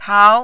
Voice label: "pao2", mandarin

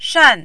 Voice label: "shan4", Mandarin

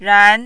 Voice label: "ran2", mandarin

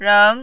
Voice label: "reng2", mandarin

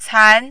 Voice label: "can2", Mandarin

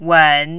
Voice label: "wen3", Mandarin

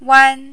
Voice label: "wan1", Mandarin

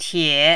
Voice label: "tie3", Mandarin

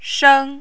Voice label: "sheng1", Mandarin

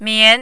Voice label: "mian2", mandarin

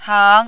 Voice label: "hang2", Mandarin

